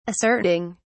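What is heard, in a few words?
"asserting", British English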